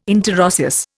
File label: "interosseous", Indian